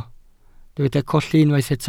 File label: "colli", Welsh